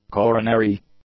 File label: "coronary", English; adjectives